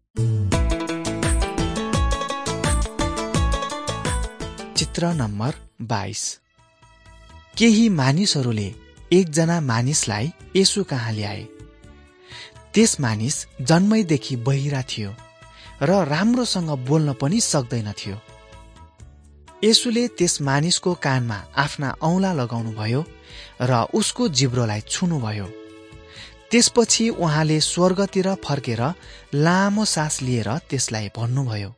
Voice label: jesus teacher and healer nepali